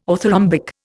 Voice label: "orthorhombic", Indian

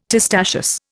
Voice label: "testaceous", Indian

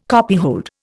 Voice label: "copyhold", Indian

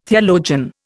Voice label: "theologian", Indian

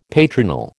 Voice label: "patronal", American

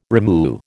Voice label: "rimu", American

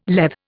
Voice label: "Lethe", Indian

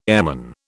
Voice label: "airman", British

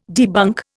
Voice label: "debunk", Indian

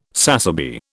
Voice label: "sassaby", British

